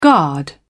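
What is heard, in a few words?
"guard", British English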